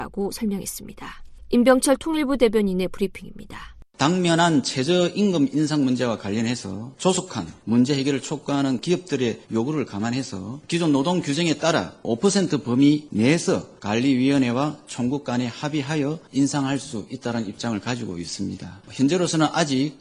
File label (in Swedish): Koreanska